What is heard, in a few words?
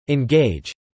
"engage", English